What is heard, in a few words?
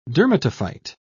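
"dermatophyte", British English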